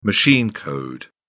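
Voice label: "machine code", British English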